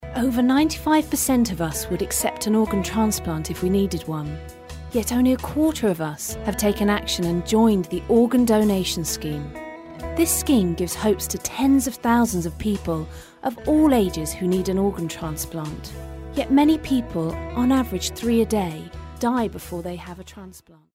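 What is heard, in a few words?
British English, female, e-learning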